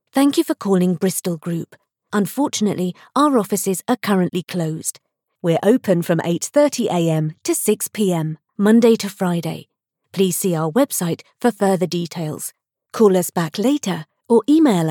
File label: English Voice Over